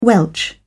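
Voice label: "welch", British English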